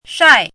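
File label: "shai4" Mandarin Chinese, falling tone; chinese-voice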